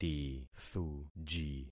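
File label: "tithuji", concatenated syllables; Affixing experiment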